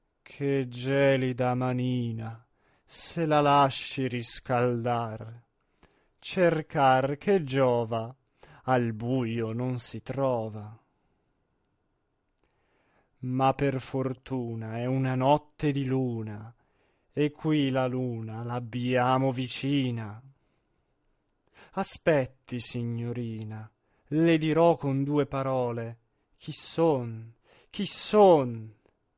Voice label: espressivo; La Boheme; Che gelida manina

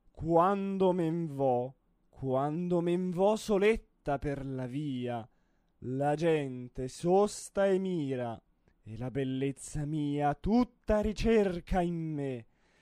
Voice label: Quando men vo espressivo; La traviata

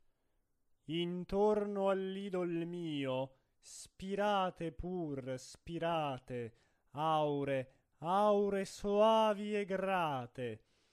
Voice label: Intorno al bell idol mio espressivo; Cesti

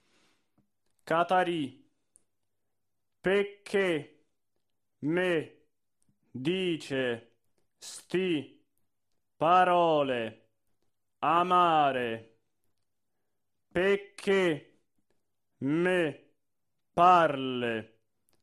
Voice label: monotono; Cardillo; Core ngrato

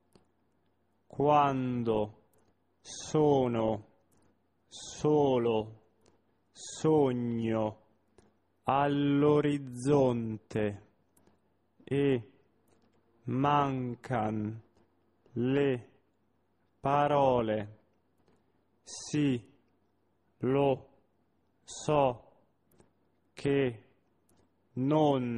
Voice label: monotono

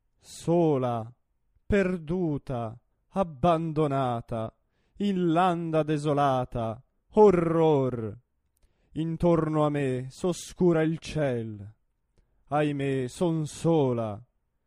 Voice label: espressivo; Manon Lescaut

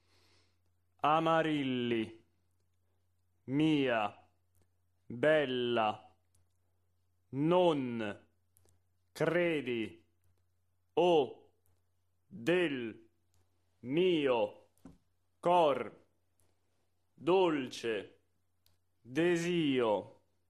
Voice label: monotono; Caccini; Amarilli

